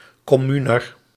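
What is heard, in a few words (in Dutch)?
"communer", Dutch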